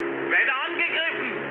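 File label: German aircraft damaged mood med, speech; War Thunder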